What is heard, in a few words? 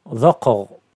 Kabyle; Ḍaqeɣ!